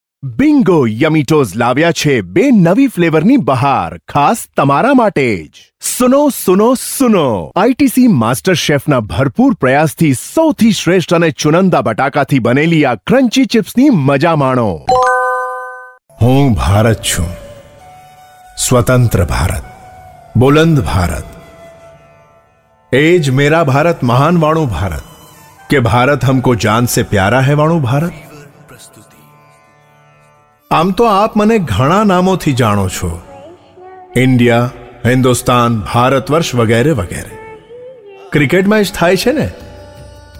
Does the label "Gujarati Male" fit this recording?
Yes